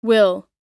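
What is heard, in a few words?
"will", American English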